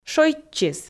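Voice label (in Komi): "шойччис", Komi, female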